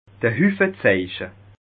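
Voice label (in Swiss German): "huffezeiche", Alsatian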